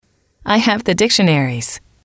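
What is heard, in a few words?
"I have the dictionaries", English, female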